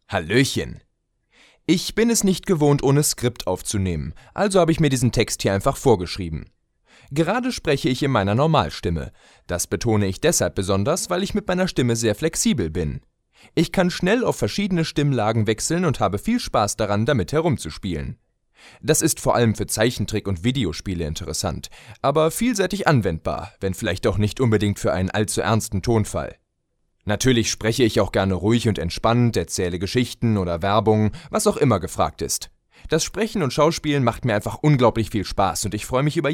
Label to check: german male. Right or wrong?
Right